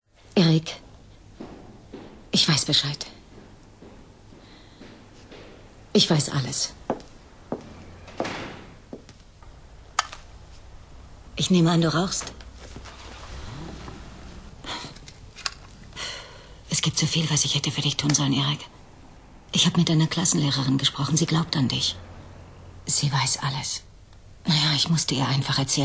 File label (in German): Evil synchron, frauen